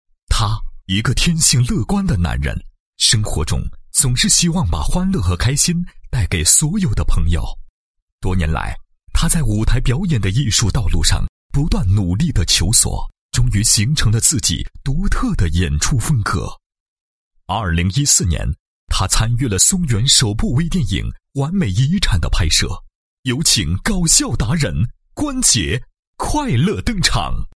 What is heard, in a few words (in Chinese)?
男 人物介绍; 搞笑达人关某介绍